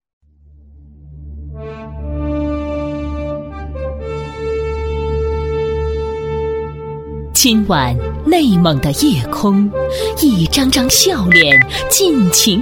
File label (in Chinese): 女4号 大气豪迈 解说, 国语女声配音; 烟花晚会解说